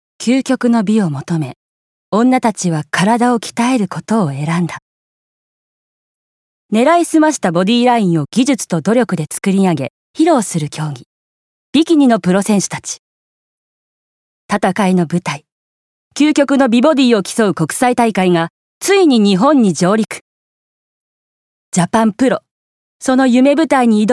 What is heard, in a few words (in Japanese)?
番宣